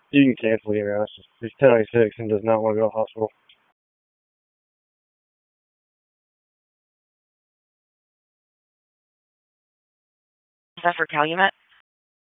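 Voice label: Raleigh Police Southeast District Dispatch, p25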